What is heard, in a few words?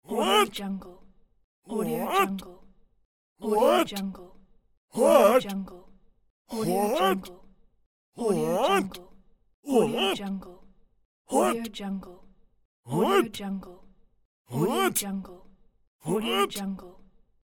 old man saying what pack